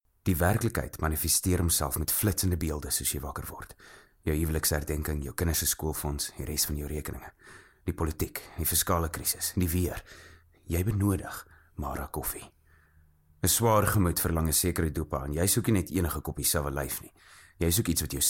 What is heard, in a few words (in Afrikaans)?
Afrikaans Conversational